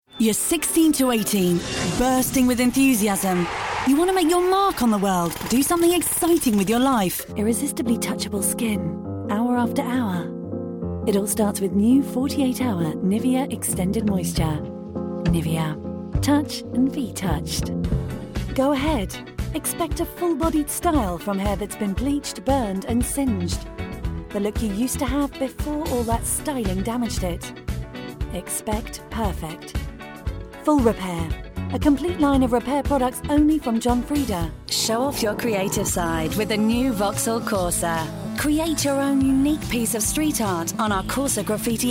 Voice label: Commercial British